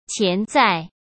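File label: "潜在", Mandarin Chinese; chinese flashcards; hsk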